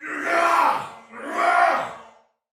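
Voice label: Attack Taunt Soldier Male, Battle; Dialog; Barks; Sinecure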